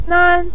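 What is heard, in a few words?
"nan", Mandarin Chinese neutral tone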